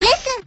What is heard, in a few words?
cute anime girl voice